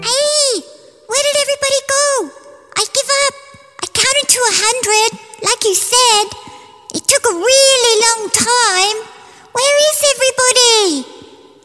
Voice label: Kid

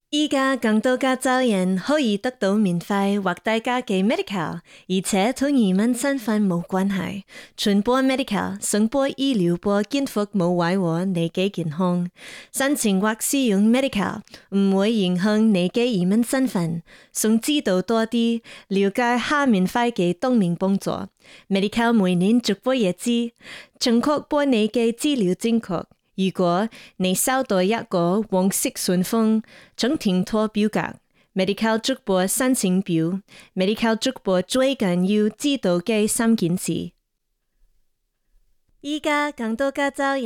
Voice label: Cantonese; NY SourceConnect

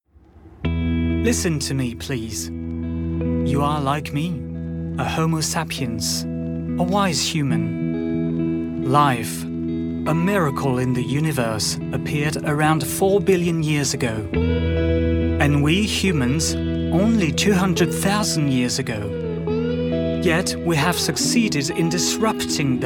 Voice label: Documentary Medium voice Solemn tone

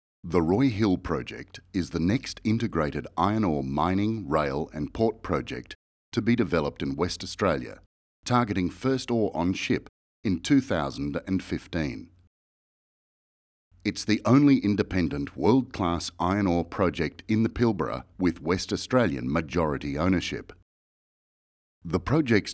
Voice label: corporate video narration

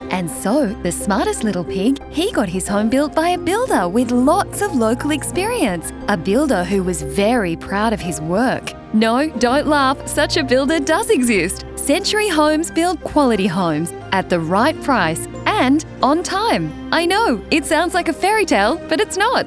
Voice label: radio spot; century homes

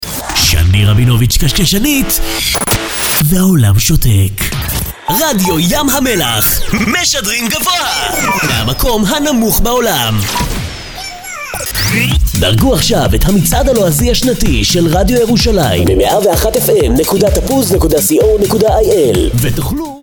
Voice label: Radio TV ads Hebrew Young adult Male; VoiceBunny

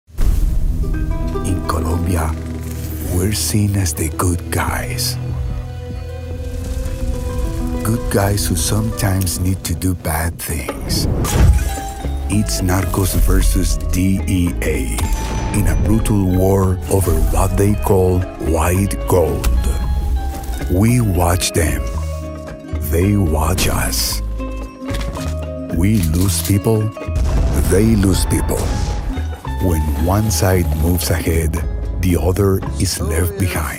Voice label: TRAILER, Deep male voice, Conversational; NARCOS TRAILER

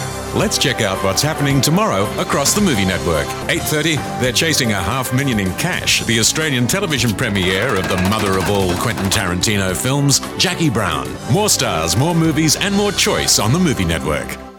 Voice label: Network promo

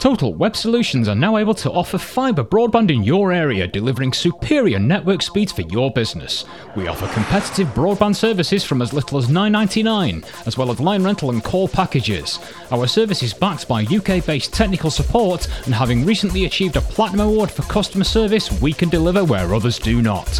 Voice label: voice over

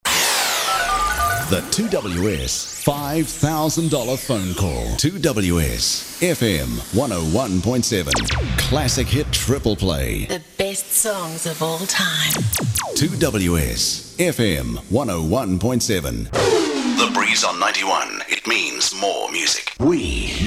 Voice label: Radio IDs

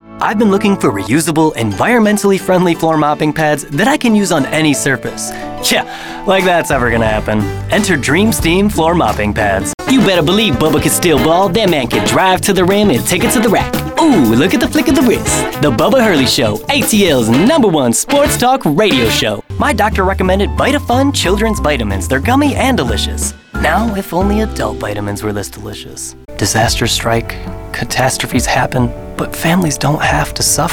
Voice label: Commercial Voice

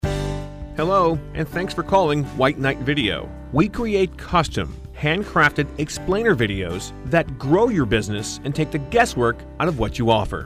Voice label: Phone Greeting

